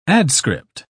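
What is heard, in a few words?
"adscript", British English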